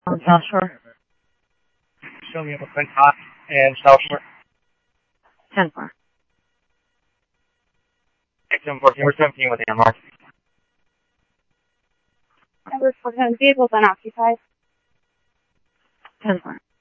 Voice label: Tempe Police, scanner; SimulcastF-Tempe

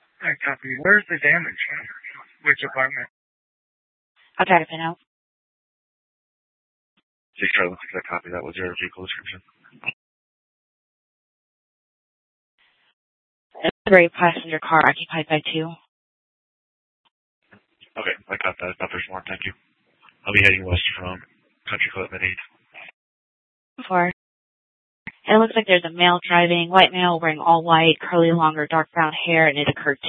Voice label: Police Department Dispatch, scanner; Mesa; TOPAZ Regional Wireless Cooperative (TRWC); Mesa Simulcast; Fiesta

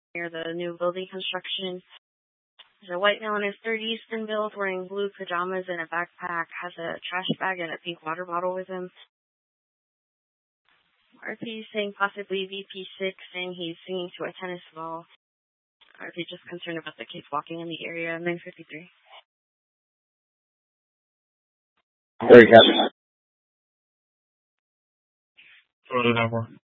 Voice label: Scottsdale Police, Simulcast

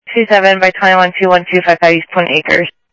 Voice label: Sheriff, scanner audio

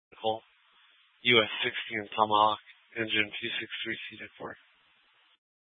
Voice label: FD Dpts VHF 156.240 Patch

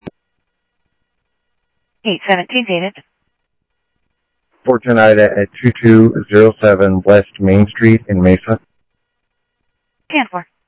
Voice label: Central Sheriff Central, scanner audio; MCSO Central; ThompsonPeakScot

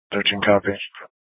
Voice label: Dispatch; Scottsdale PD; Nth Dispatch

